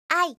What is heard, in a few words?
"i", alphabet